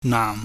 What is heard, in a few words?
"naam", pingyam